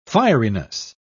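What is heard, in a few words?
"fieriness", English, British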